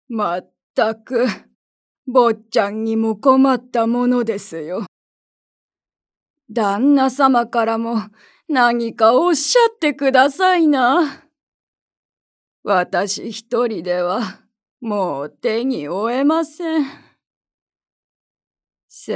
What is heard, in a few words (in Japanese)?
60代執事